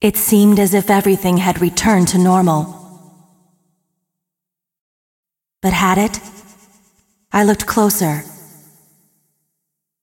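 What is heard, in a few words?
cinematic voice, Polish; lineage2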